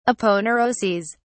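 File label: "aponeuroses", British English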